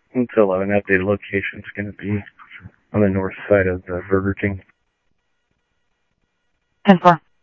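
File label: police department, scanner audio trunk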